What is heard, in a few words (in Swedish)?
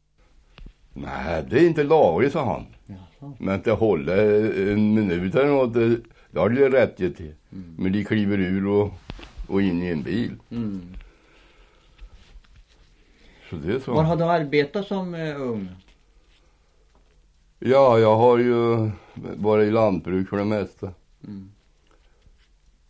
Västra Ny, dialekter; Folkminnen; Svenska sagor